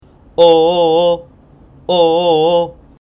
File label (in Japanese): 母音はっきり地声